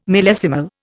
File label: "millesimal", Indian